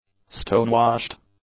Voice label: "stonewashed", English; adjectives